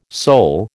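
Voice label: "Sol", American English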